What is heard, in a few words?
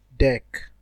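"deck", Nigerian Pidgin, male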